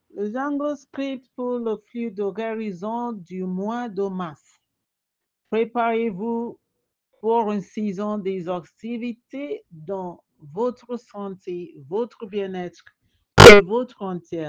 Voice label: FRENCH; HEALING STREAMS

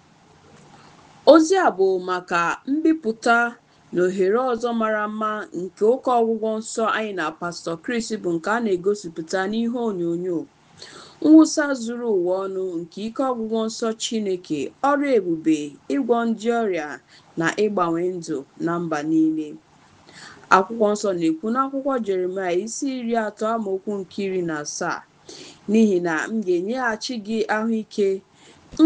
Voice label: healing streams igbo jingle

